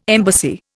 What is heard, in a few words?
"embassy", Indian